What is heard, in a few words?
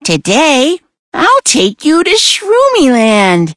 loading en